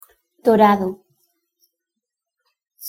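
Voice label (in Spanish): "dorado" Spanish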